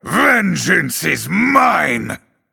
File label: Male Night Elf Attack